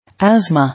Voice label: "Asthma", English